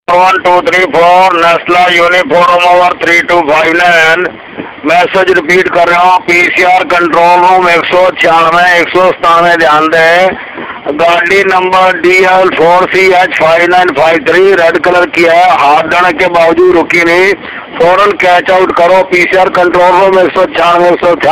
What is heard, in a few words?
Police Talk Funny